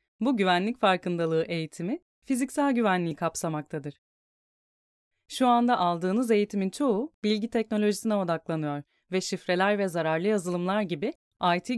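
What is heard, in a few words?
Turkish, female, e-learning; INFOSEC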